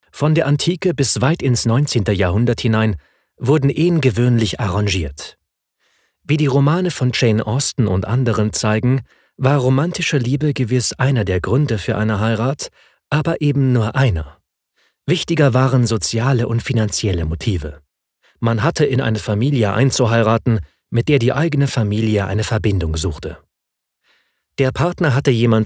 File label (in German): Hörbuch Sachbuch; Ehe